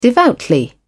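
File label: "devoutly", British English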